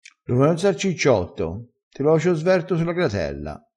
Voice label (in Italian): "sverto", crespinese